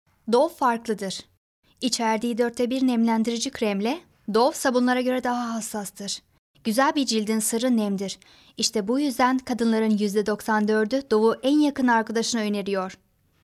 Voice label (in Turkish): REKLAM